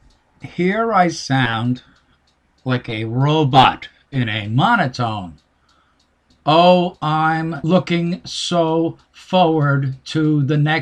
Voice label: Syllabic Emphasis